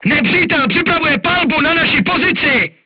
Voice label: voice message art shooting warning, crew tanks czech